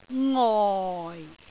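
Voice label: "ngoi4", Cantonese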